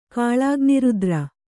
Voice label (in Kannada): "ಕಾಲಾಗ್ನಿರುದ್ರ", Kannada